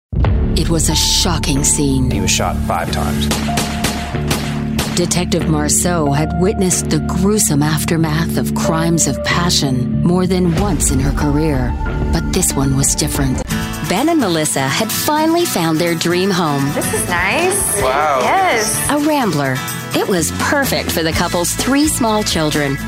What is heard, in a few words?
English American TV shows